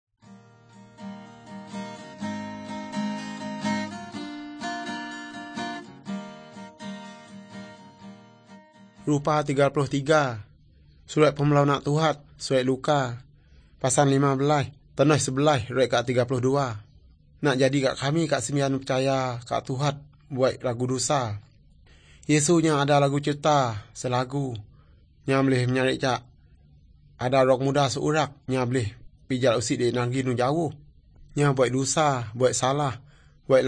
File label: good news, urak lawoi